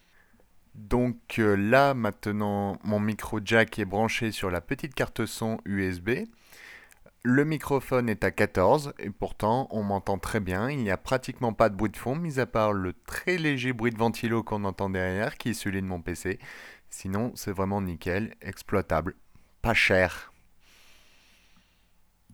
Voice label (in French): micro avec carte son usb